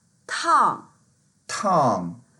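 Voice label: "tang4", Mandarin Chinese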